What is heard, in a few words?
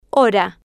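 "hora", Spanish, female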